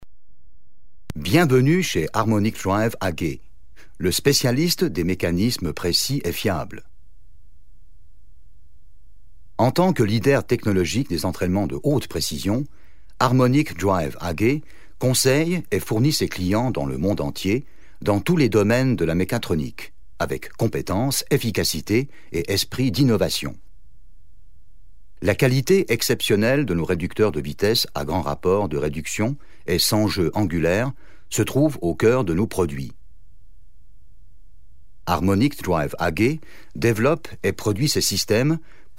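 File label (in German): French, Kommentar Neutral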